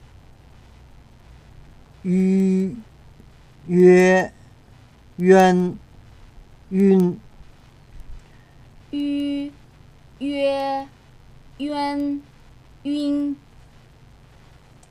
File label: pinyin, chinese